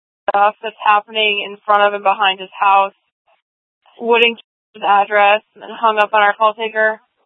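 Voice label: Scottsdale Police South Dispatch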